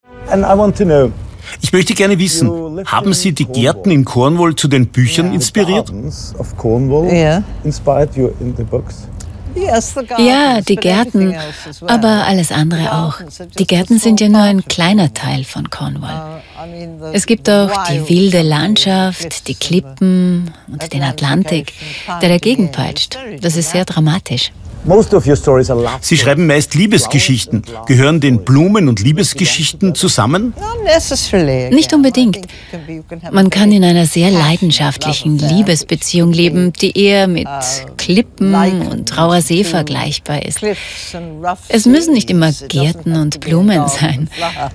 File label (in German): Sprecherdemo VoiceOver; Pilcher